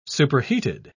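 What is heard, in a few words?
"superheated", English, uk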